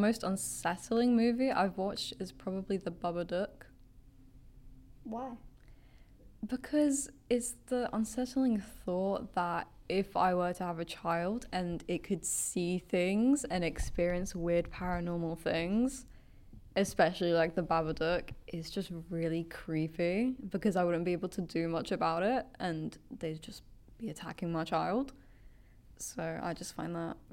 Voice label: Vox Pop Horror POV Interviews; Scene 5&6 before recording